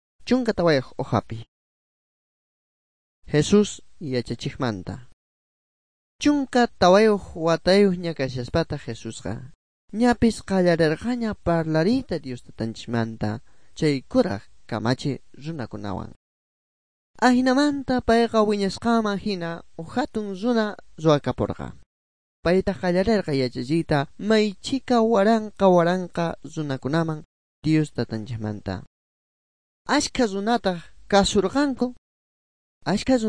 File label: good news quechua bolivian sucre; bible